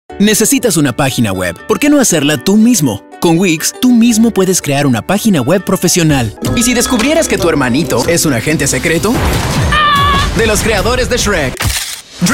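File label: Latin American Mexican Spanish Commercial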